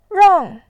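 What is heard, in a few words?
"rang", Mandarin Chinese, fourth tone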